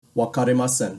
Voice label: "wakari masen", Japanese; Basic Japanese Terms